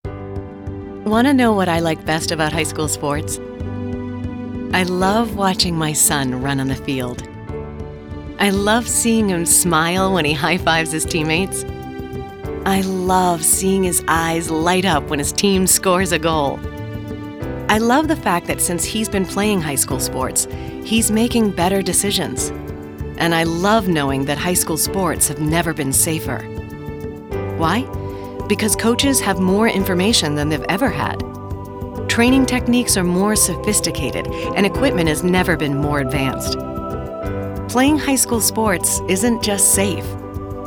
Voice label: audio spot